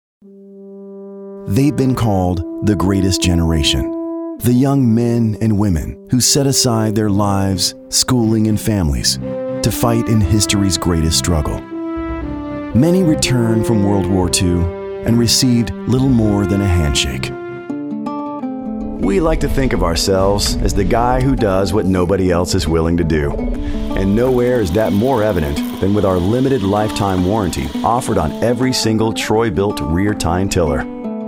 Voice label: Narration